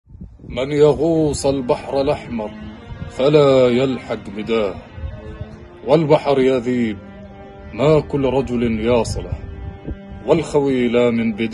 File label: poetry, arabic